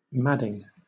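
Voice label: "madding", British English; pronunciations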